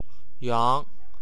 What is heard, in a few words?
"iaong", wu chinese